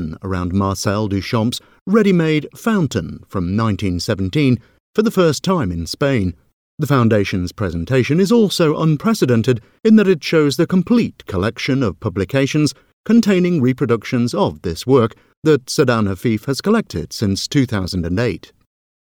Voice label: voiceover narrative audioguide art exhibition; sadanne afif